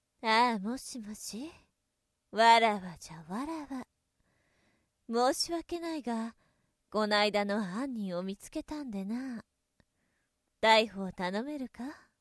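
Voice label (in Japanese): ボイスサンプル, 女, 19歳, 和風; 「ああもしもし、妾じゃ妾。申し訳ないがこないだの犯人を見つけたんでな。逮捕を頼めるか？」; 隠密国家警察安全保安部隊警部補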